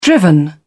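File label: "driven", British English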